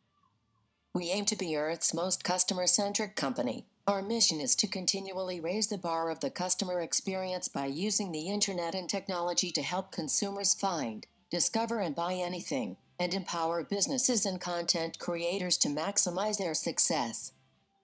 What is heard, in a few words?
US Female 0.8